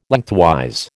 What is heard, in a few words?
"Lengthwise", American